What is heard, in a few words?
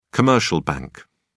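"commercial bank", English, British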